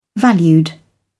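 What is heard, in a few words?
"valued", British English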